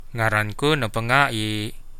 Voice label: my name is, Central Dusun

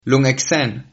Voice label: long time no see, Sylt Frisian

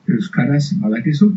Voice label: do you speak, basque; Euskaraz badakizu?

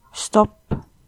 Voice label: stop, estonian

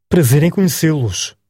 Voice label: meet, portuguese

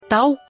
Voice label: thanks udmurt

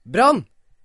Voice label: "fire", Norwegian

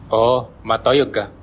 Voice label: yes a little, bonggi

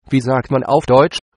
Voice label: how to say, de; German

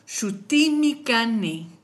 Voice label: my name is, kichwa

